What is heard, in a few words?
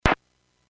Airports 121.5 Emergency, Radio